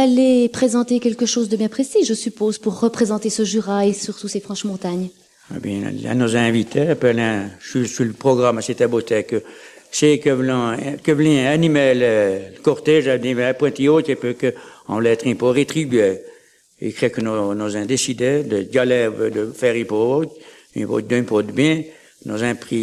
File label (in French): Fete du patois fribourgeois